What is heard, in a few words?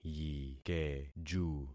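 "yikeju", concatenated syllables; Affixing experiment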